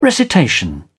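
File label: "recitation", British English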